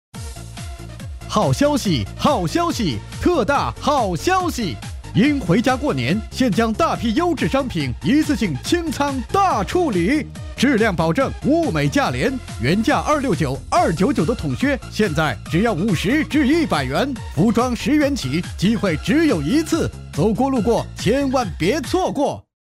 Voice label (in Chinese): 叫卖广告 好消息 清仓大处理